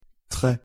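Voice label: pronunciation "tres", French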